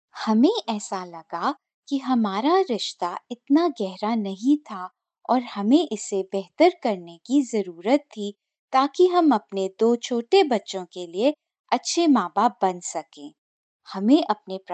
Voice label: Hindi Quote